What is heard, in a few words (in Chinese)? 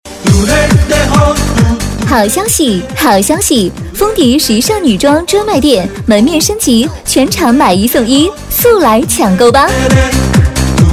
促销 女 激情; 服装